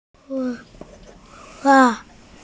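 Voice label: "zuo wei", Mandarin Chinese, female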